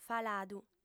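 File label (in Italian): "faladu", lingua sarda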